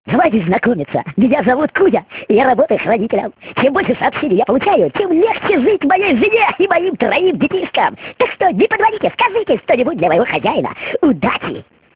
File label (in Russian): Avtootvet